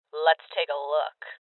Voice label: Voices Open Safe Female; rebel cops 2019 gamerip